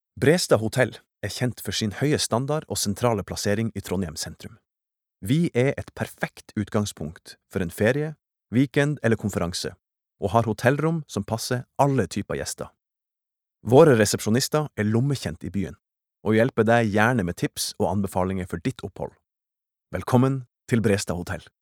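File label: Norwegian informative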